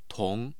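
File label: "tong2", Mandarin Chinese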